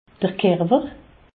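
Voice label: "kerwer", Alsatian, Haut Rhin, corps; medecine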